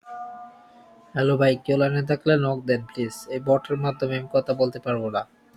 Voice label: voice message